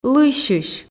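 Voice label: "лыйсьысь", Komi, female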